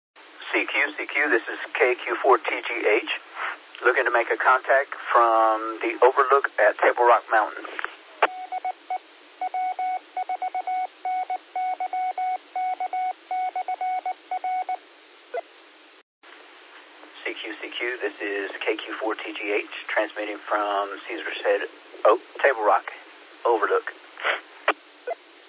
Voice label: qso rec simplex logic